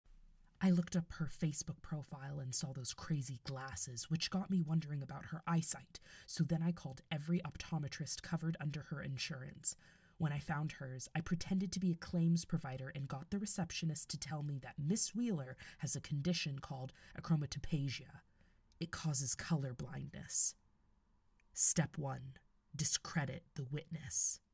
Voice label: American Accent